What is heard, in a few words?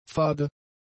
"fade", German; adjectives